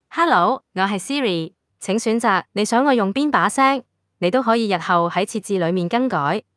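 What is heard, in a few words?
Chinese, Hong Kong; iOS 26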